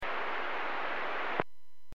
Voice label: Aviation 121.5 Emergency